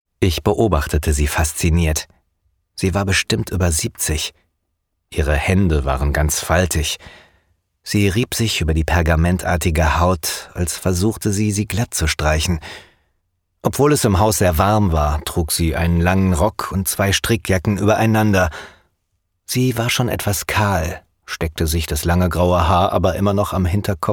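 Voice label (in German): hörbuch roman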